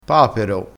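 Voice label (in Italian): "papero", dialettale crespinese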